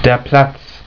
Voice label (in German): "Platz", German